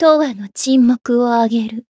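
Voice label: Voice win, Japanese; toova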